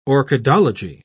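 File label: "orchidology", English, British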